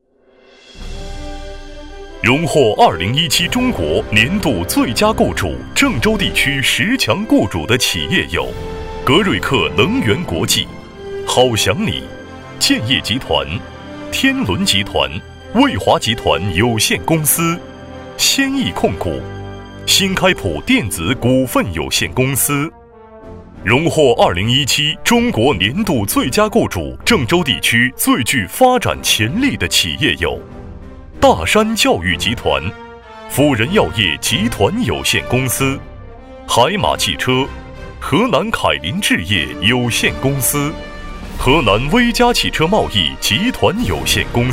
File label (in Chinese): 男国 专题 颁奖 颁奖词